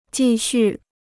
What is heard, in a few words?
"继续", Chinese